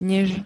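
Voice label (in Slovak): "nez", Slovak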